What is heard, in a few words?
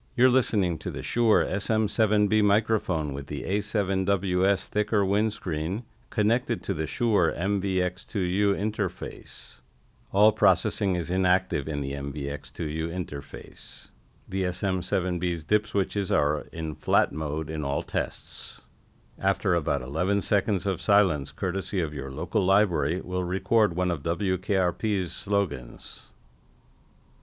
SM7B reference for K688